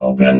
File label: "open", robot